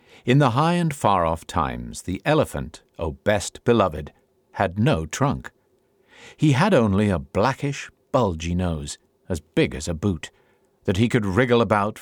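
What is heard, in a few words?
British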